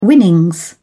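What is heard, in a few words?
"winnings", British English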